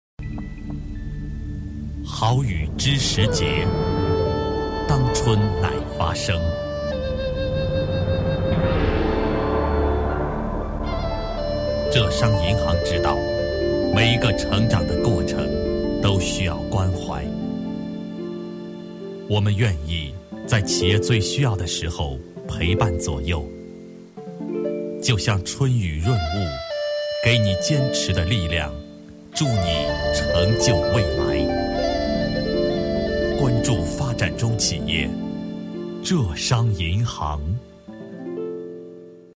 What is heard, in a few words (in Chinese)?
男 舒缓纪录片, 国语男声配音; 浙商银行细雨篇